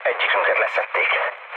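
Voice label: Hungarian aircraft destroyed mood low, speech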